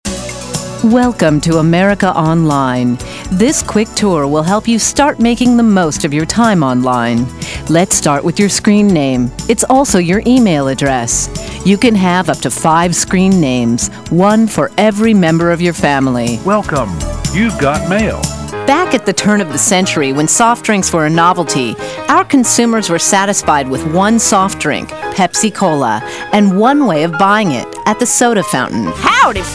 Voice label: american advert; english course; AudioEnglish